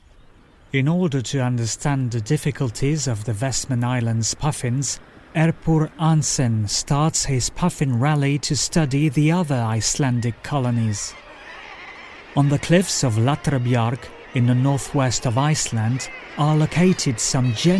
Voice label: English - Wild Life Tone, Documentary, Male; Monk Puffin the little brother of the Arctic

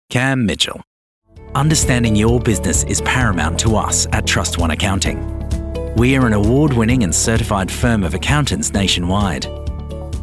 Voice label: corporate

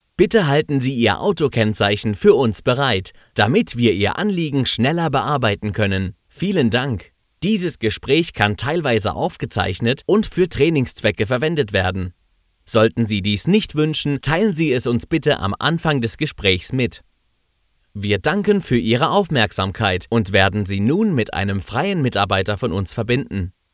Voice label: phone

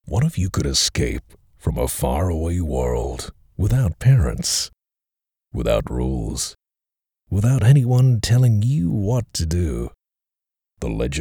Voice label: Raw Studio Quality

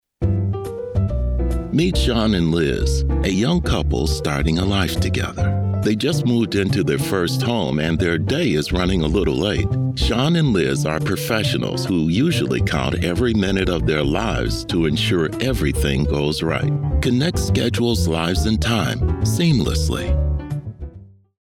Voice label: Whiteboard presentation

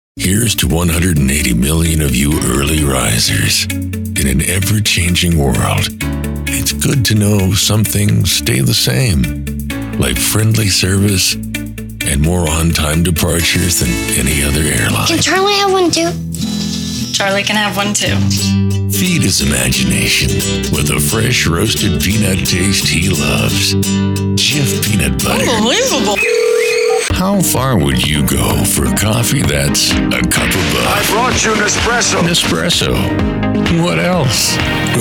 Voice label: commercials

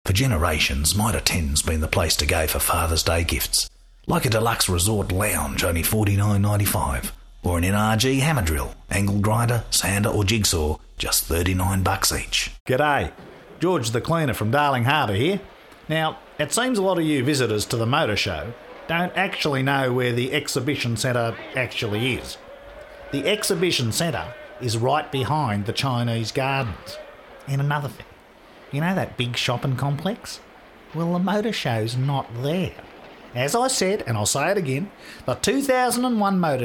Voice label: Aussie Ad Reads

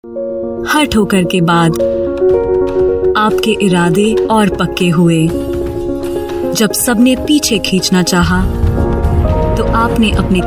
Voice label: Hindi VO

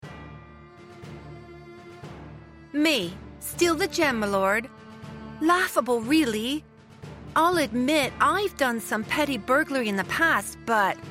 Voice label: British thief with music

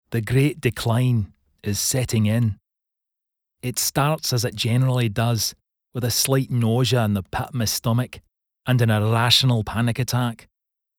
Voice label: Glasgow Accent

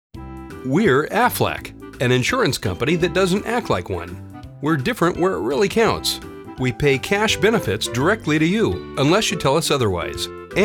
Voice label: radio mix

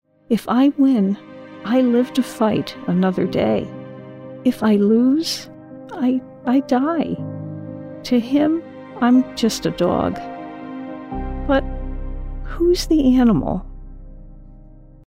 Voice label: PSA Sad

